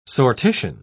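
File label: "sortition", British English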